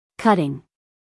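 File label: "cutting", American English female; everyone-can-use-english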